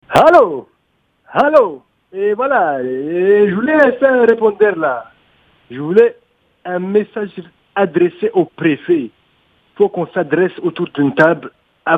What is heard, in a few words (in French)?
imite accent africain